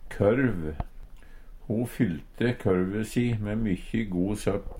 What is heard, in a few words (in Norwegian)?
korv, Numedalsmål; Substantiv hokjønn; Personleg utstyr, klede, sko